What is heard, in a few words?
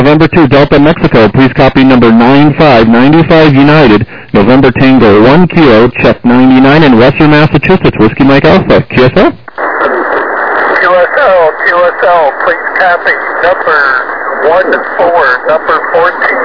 SSB LSB 7MHz